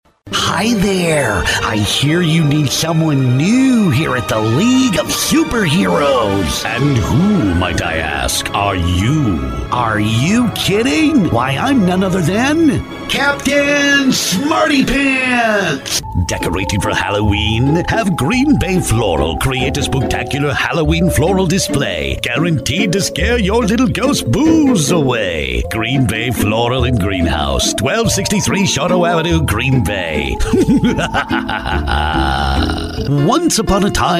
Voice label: Character, Voice Over

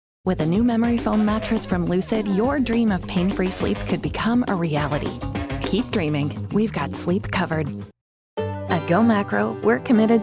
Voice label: Conversational Casual Millennial Commercial

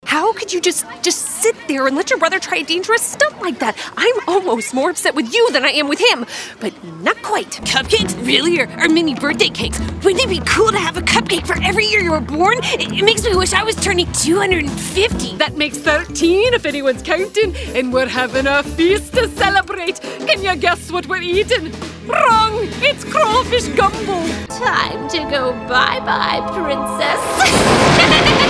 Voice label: Animation, Voice Over

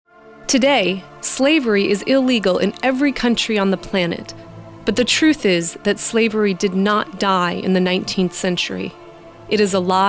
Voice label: Narration, Voice Over

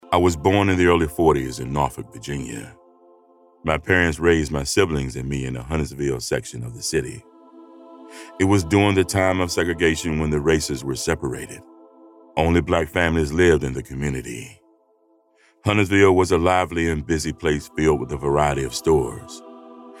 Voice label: Narration

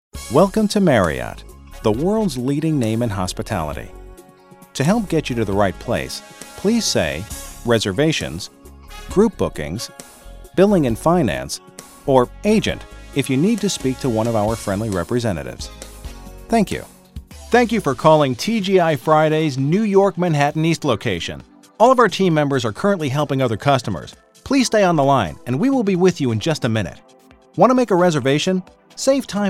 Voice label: Telephony

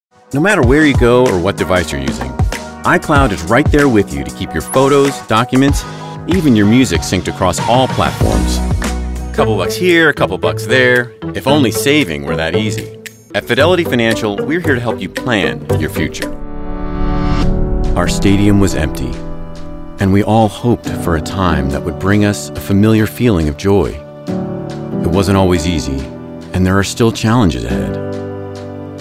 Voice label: Commercial, Voice Over

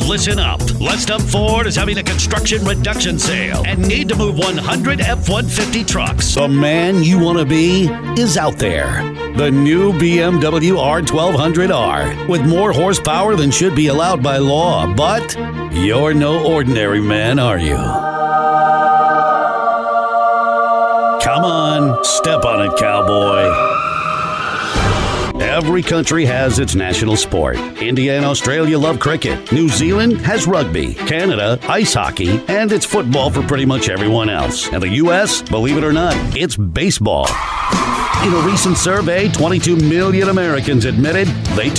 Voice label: Commercial, Voice Over

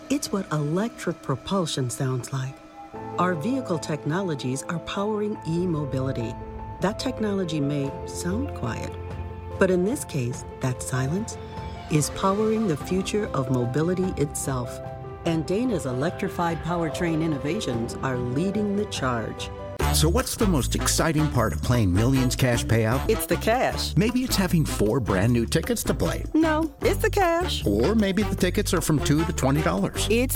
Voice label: Commercial

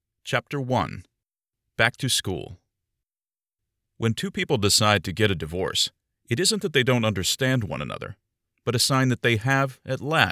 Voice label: Audiobook; An American Divorce

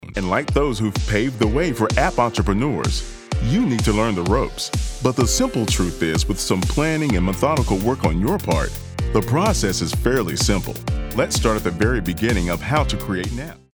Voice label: Application eLearning

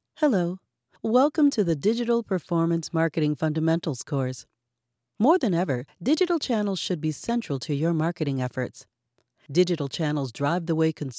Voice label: ELearning

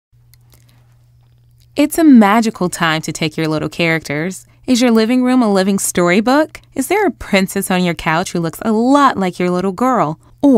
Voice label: V.O.

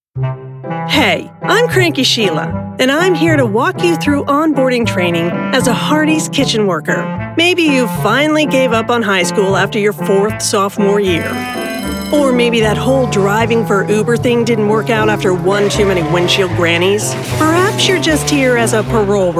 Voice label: E LEARNING

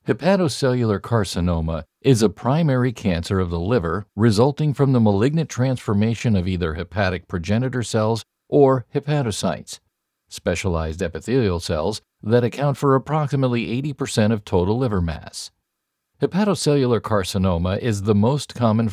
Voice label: Medical Narration, Voice Over

